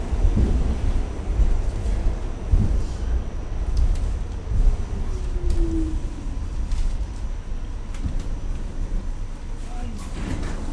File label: subway driver